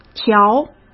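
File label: "条", Mandarin Chinese; hsk; chinese-flashcards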